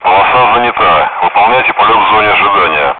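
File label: Speech, Russian, air traffic control; orbit for spacing; DCS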